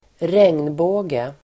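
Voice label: "regnbåge", Swedish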